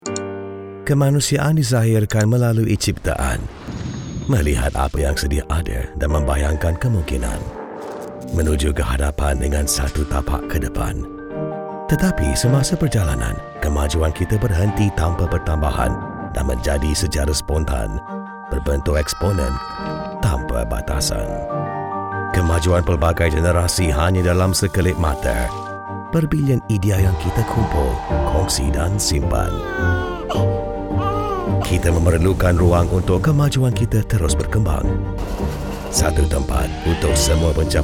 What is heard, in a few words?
malay promos